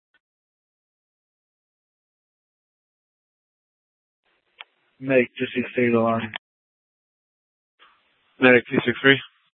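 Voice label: Gilbert, Fire Department, scanner; Mesa - Gilbert - AJ FD Departments; C4 Gilbert, QC, Superstition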